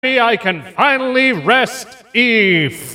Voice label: announcer infection zombies win; open fortress